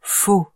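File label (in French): "faux", French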